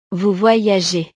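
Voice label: "vous voyagez", French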